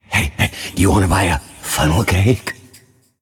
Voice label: Male Undead Play